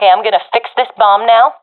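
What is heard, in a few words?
i plant bomb, professional female; vo; Counter Strike Model Pack; CS:GO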